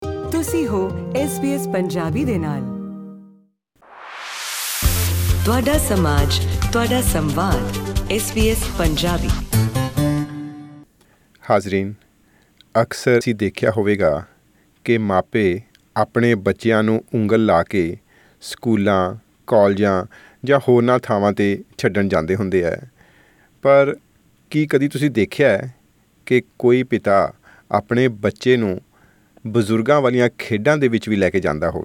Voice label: punjabi; SBS Punjabi; Father and son duo training to compete in the Australian Masters Games

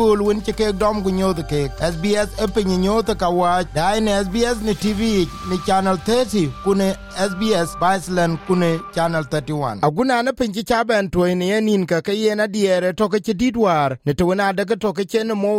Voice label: dinka; Pïu atɔ̈ keke cë rɛ̈ɛ̈c aka ye lueel ya tiɛ̈ɛ̈tkɛ̈ nyïïn; SBS Dinka

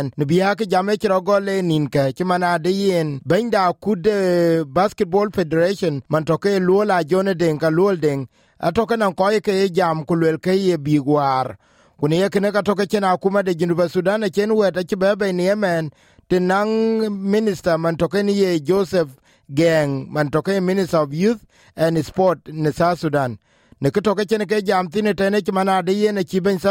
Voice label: dinka, podcast; Basketball Federation; SBS Dinka

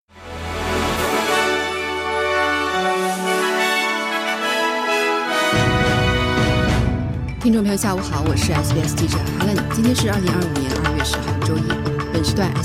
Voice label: mandarin newsflash; 特朗普宣布将加征25%的钢铝关税; SBS Chinese; SBS新闻快报

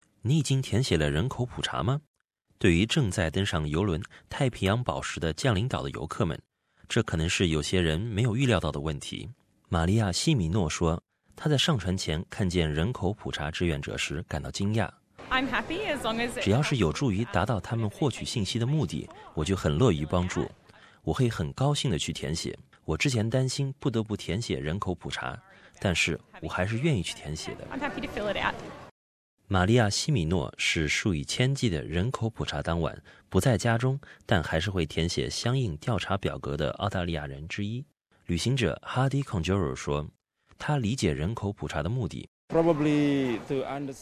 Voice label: mandarin; SBS Chinese; 人口普查，你准备好了吗？

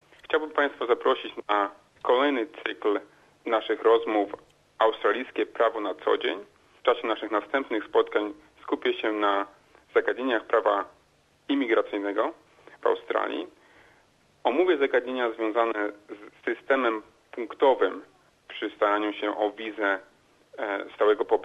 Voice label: polish; What visa to Australia?; SBS Polish

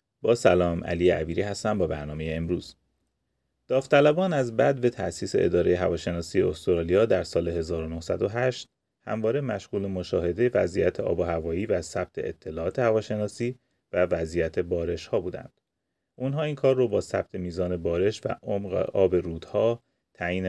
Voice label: persian; همکاری پنجاه ساله کشاورز اهل تاسمانیا با اداره هواشناسی; SBS Persian

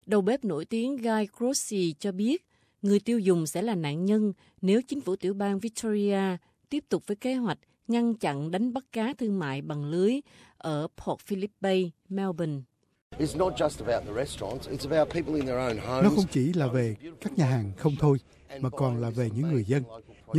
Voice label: vietnamese

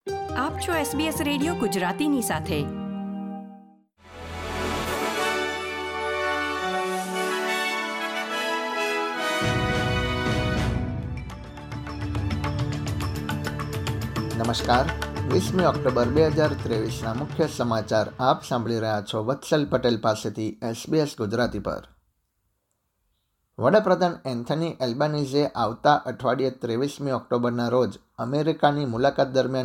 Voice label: gujarati news bulletin; SBS Gujarati News Bulletin 20 October 2023

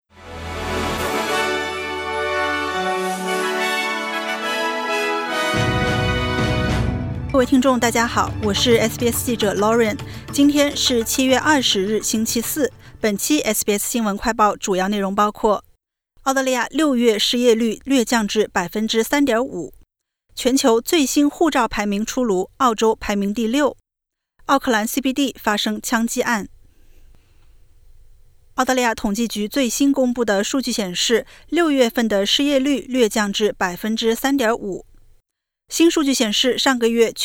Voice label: mandarin news flash; 澳大利亚6月失业率稳定在3.5% 就业人数增加逾三万; SBS Chinese; SBS新闻快报

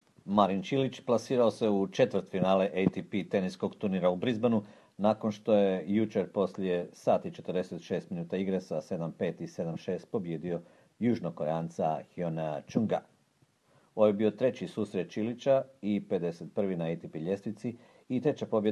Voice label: croatian

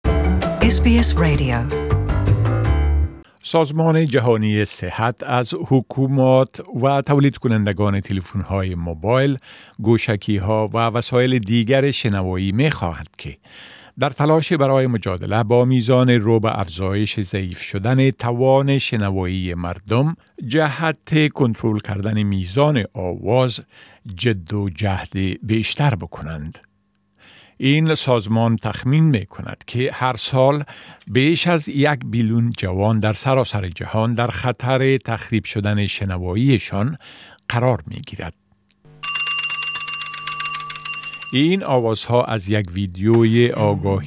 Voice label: dari; UN urges manufacturers to help combat increased noise-induced hearing loss; SBS Dari

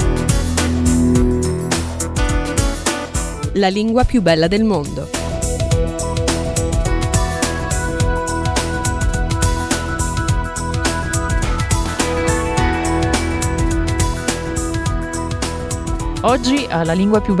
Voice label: italian; "I learnt Italian, and dialect, in Veneto"; SBS Italian

